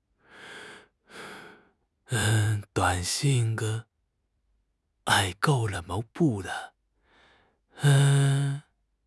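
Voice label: "duan3 xin4", Mandarin Chinese; HSK; HSK4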